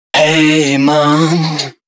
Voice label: male d#130, Dance Ultra Voice hooklines; Mutekki Media; Dance Ultra Voice VOL-1

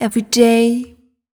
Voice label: fem spo, Vocals

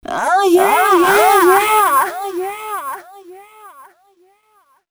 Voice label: female c#133, adlips

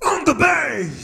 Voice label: HEY VOX, Roots Disco; USB Soundscan vol.32; AKAI

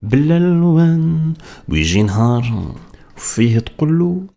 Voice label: POET, POET VOICE, Arabian Traditions

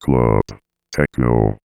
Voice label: Club Techno, Robotic Vox; 90 sSampleCDs

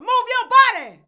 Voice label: "move ya", shouts; Best Service; Hyper Dance

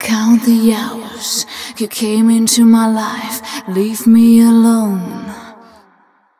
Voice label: fem spo, Vocals

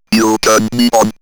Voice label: Robot You Turn Me On, robot vox; X-Static Goldmine

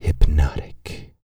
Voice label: bit, Vocal, R&B Dance, hip-hop; TB HIP-HOP

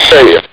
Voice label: "say it", Amiga Soundtracker sample; Amiga Soundtracker Sample Packs

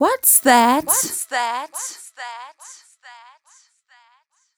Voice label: f 140 spo, fem Vocals

